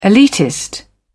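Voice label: "elitist", British English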